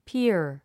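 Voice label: "pier", English word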